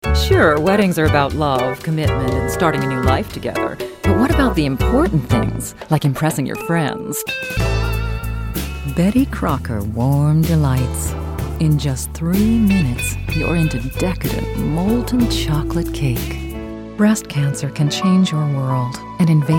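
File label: commercial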